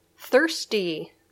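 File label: "thirsty", English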